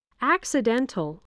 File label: "accidental", English voice